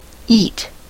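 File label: "eet", American English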